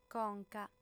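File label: "conca", female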